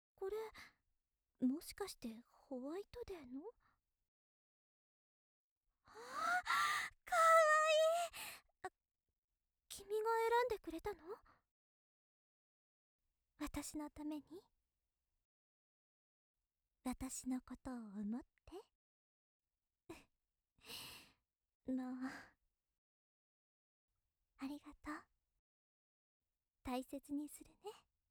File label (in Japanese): 優しいお姉さん ホワイトデーだね